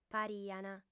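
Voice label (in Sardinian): "pariant", female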